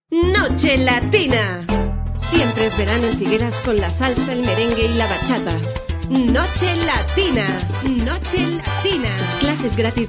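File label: Spanish, female, commercial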